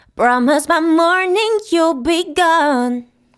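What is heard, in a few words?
pop dance vocal you ll be gone, 124, E minor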